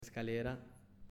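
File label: "Escalera", Spanish, male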